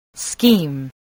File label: "scheme", english spelling